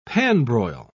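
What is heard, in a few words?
"pan-broil", British English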